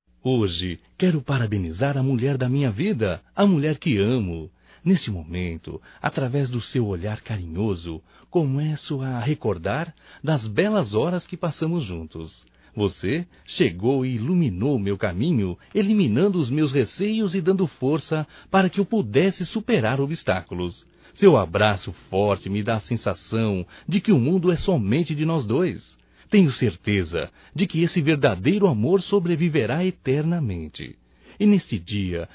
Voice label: ANIV Romântico (M)

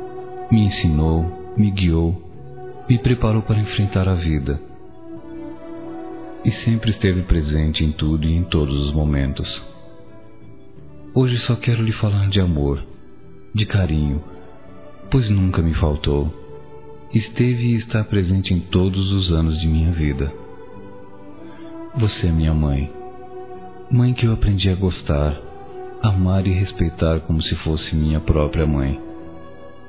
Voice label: Madrasta, Masc; Dia das Mães; Telemensagens 2017

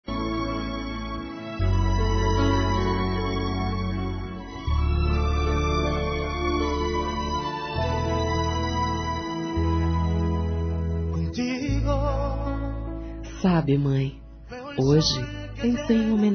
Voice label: homenagem a mãe F, telemensagens; fem

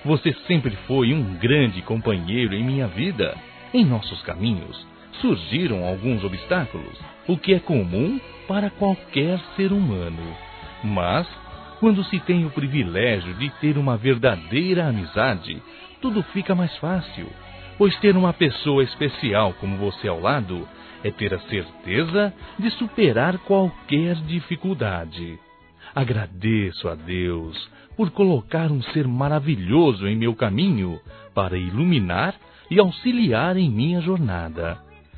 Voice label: NATAL P AMIGO, masc; Telemensagens; Natal e Ano novo

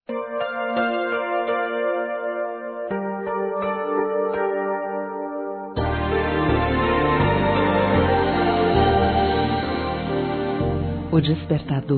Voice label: SECRETÁRIA F; fem

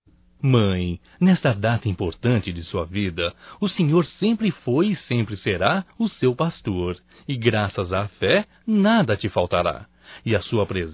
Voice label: Aniversário Mãe Evangélico Masculino; Aniversarios; Telemensagens 2017

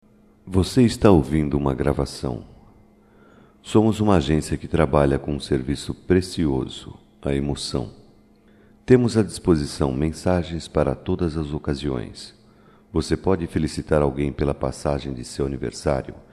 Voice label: DIVULGAÇÃO DE TELEMENSAGEM

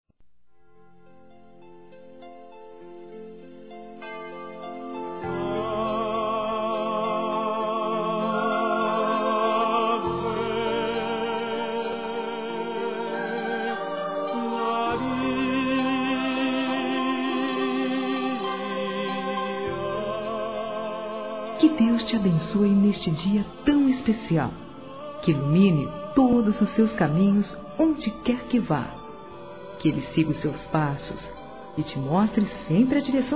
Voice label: ANIV. RELIGIOSO F; Aniversários; ANIV. Evangelico; Fem

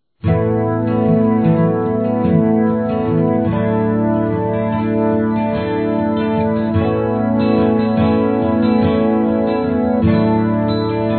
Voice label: Contenha Impulsos Feminino, Telemensagens Românticas

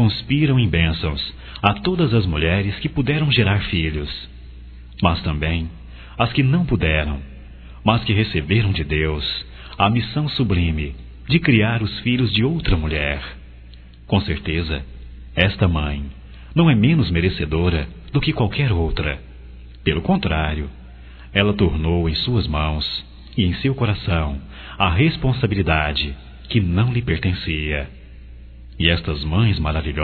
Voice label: AMIGA (M); Telemensagens 2017; Dia das Maes

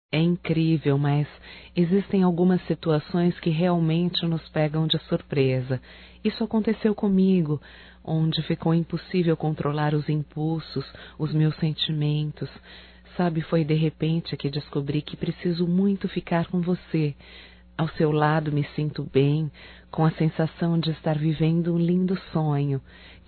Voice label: FICANDO(F), Românticas fem

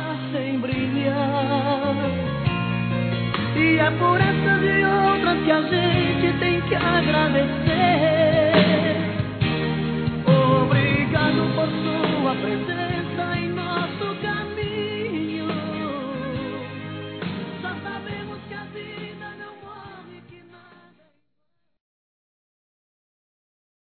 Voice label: Feliz Ano Novo Masculino; Telemensagens; Natal e Ano novo

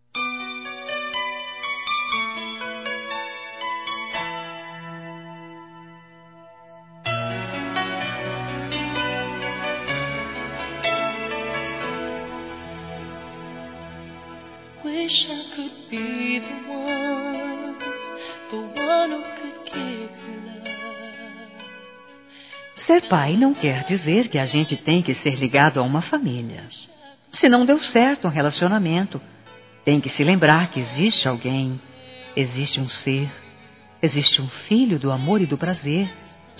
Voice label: Para Pais Solteiros Feminino; Telemensagens 2017

